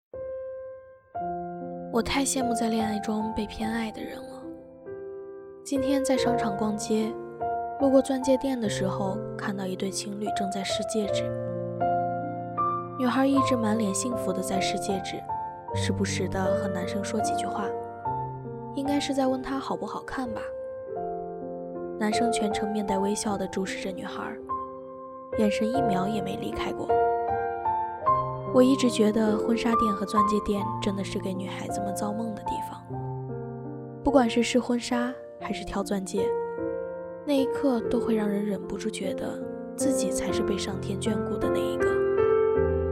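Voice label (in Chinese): 短视频深情走心; 抖音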